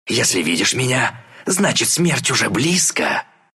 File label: kill, ru; Dota 2